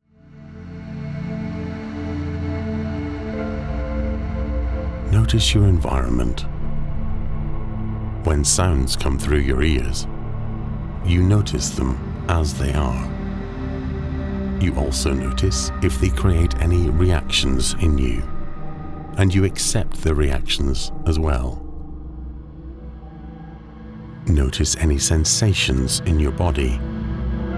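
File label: British meditation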